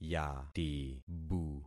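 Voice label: "yatibu", concatenated syllables; Affixing experiment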